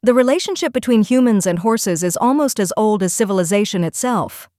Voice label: us female